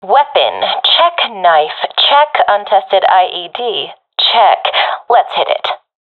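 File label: round start, professional female; vo; Counter Strike Model Pack; CS:GO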